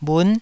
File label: "bhun9", taiwanese Syllables